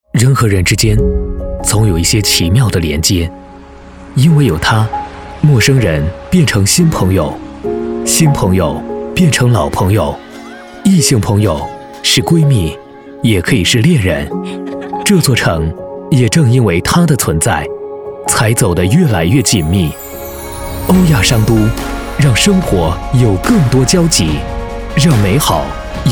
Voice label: 男 深情广告

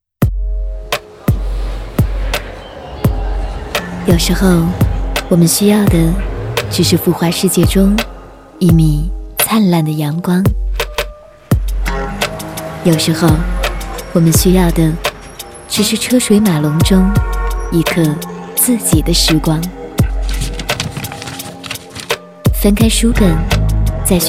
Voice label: 女 电台包装 温柔磁性; 听说

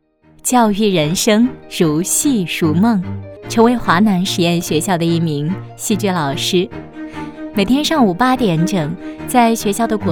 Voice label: 女 专题 学校教育人生 年轻风格

